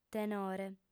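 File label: "tenore", Sardinian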